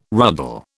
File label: "ruddle", British